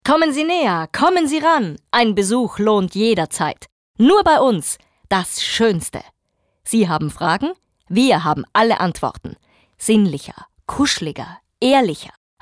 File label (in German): Schauspiel Lebendig